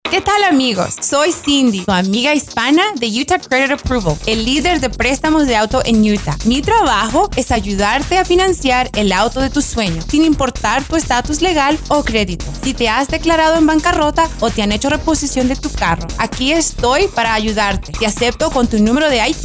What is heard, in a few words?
Radio Spot